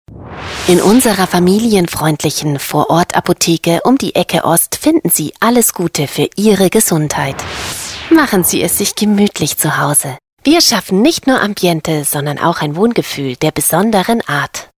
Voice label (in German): vertrauensvoll heimelig